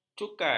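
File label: "cukai", Malay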